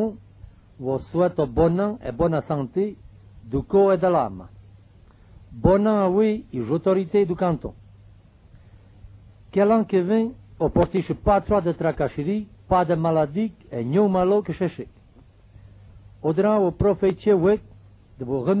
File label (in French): patois d'Heremence; patois valaisans; Souhait de bonne annee